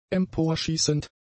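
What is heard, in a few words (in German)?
"emporschießend", german; adjectives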